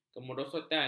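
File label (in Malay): "kemerosotan", Malay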